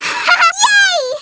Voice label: baby yay; wii game; daisy; mario kart wii